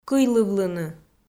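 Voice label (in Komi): "кыйлывлыны", Komi, female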